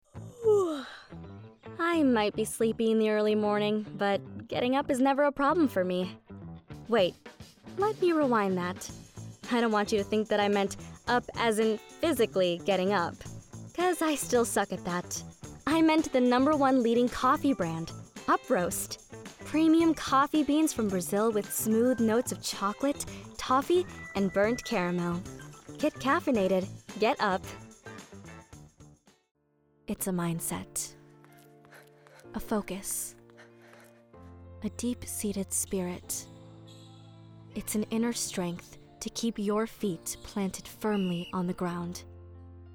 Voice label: Commercial